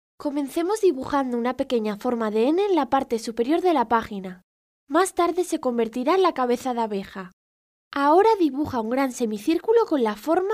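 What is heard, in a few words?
Spanish Spain female narrative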